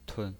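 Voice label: "tun", pinyin voice, neutral tone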